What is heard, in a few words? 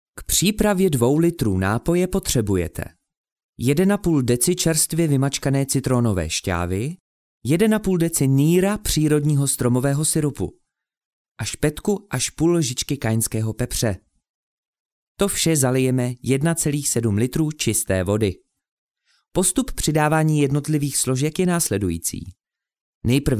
male czech voice over narrative